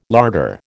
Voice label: "larder", American

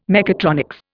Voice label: "mechatronics", Indian

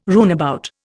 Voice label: "runabout", Indian

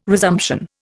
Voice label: "resumption", Indian

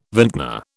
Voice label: "vintner", British

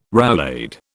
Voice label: "roulade", British

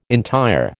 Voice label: "entire", American

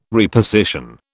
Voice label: "reposition", British

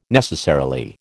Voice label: "necessarily", American

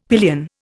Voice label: "pillion", Indian; Dictionary

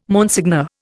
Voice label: "Monseigneur", Indian

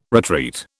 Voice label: "retreat", British

